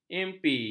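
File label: "impi", Malay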